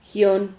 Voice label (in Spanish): "jion", Silabas